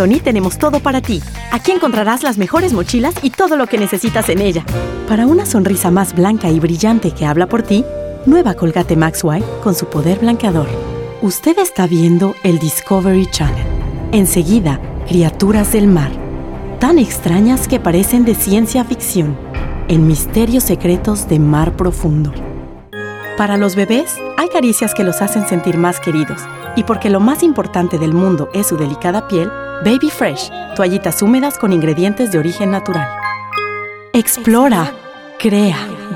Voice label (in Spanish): español comercial